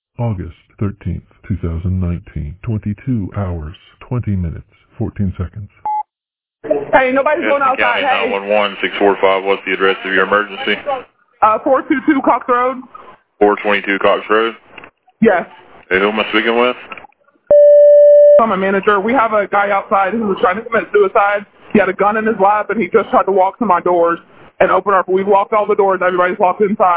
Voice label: Buffalo Wild Wings Officer Involved Shooting 911 Call